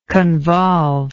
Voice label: "convolvement", English